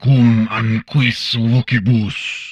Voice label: humanoid male; Stellaris